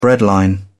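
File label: "breadline", British English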